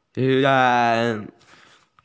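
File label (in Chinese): "cai3 hong2" Chinese, female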